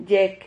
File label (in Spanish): "yec", Silabas